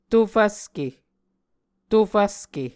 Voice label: "to-fvske", muscogee